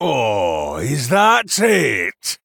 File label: Male Dwarf; Twinbraid; Rokara; Mission6 Loss